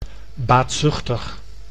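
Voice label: "baatzuchtig", Dutch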